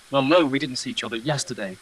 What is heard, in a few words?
Voice Acting, es